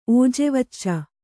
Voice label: "ಓಜೆವಚ್ಚ", Kannada

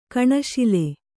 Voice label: "ಕಣುಶೂಲೆ", kannada

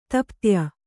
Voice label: "ತಪ್ತ್ಯ", kannada

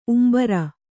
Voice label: "ಉಂಬರಿ", Kannada

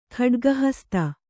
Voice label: "ಖಡ್ಗಹಸ್ತ", Kannada; khaḍga hasta; noun

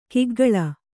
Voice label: "ಕಿಗ್ಗಳ", Kannada; kiggaḷa

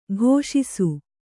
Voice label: "ಘೋಷಿಸು", Kannada; ghōṣisu; to proclaim; to herald

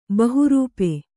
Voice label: "ಬಹುರೂಪ", Kannada

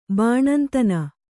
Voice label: "ಬಾಣಂತನ", Kannada

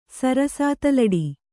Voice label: "ಸರಸಾತಲಡಿ", Kannada

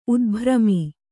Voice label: "ಉದ್ಭ್ರಮಿ", Kannada

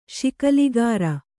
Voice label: "ಶಿಕಲಗಾರ", Kannada

